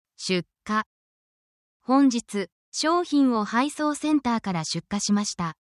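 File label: Japanese word, essential Japanese phrases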